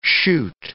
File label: "chute", English